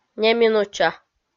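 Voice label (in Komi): "неминуча" Komi, female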